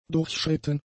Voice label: "durchschritten", german; adjectives